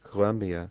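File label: Colombia, American English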